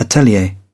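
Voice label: "atelier", British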